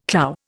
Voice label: "clou", Indian

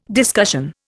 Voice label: "discussion", Indian

